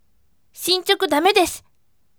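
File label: voice, bright; shinchoku damedesu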